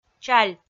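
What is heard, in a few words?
"чаль", Komi, female; kpv audio dict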